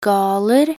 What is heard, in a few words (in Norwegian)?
"galer", nb_NO